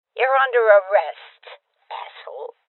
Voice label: Order To Surrender Female Old; Voices; Rebel Cops

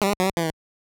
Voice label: Referee announcing TKO; Mike Tyson's Punch-Out!!

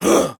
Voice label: Skill Start Vo; portal quest; android; Rebel

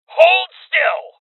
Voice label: Voices Freeze Male; Rebel Cops

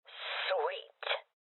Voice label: Voices Loot Collect Female Old; Rebel Cops

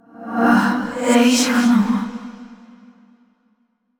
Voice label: frustrated; yamiko

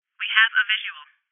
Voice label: Voices Enemy Spotted Female

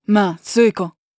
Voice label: neutral short

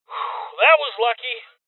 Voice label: Voices Armor Shot Male; rebel cops 2019

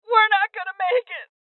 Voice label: Voices HeavyLosses Female; rebel cops 2019

